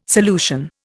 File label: "solution", Indian